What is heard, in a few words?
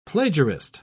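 "plagiarist", British English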